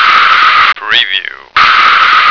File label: "preview", American